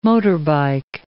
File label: "motorbike", English